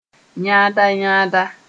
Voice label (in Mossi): "yãda-yãda", moore